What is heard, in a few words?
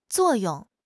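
"zuo4 yong4", Chinese; HSK4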